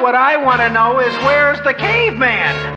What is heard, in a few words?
caveman